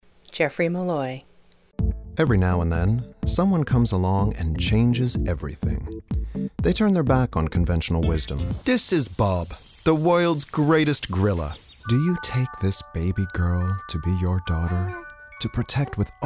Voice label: male voice